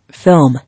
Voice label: "Film", English